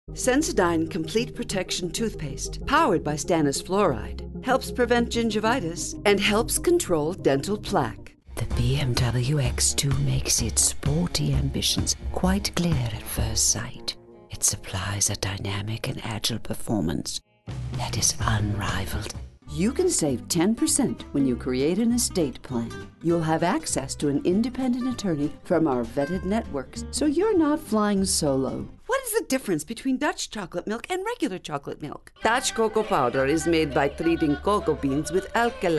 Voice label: Commercial VO